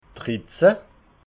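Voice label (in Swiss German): "drittzehn", Alsatian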